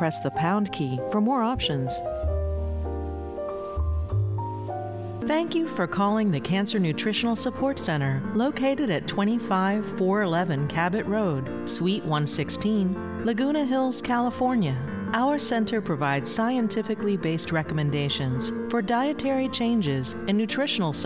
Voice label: Phone Hold IVR Female; Narration, Phone Hold/IVR